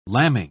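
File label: "lambing", British English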